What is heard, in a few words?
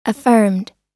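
"affirmed", US English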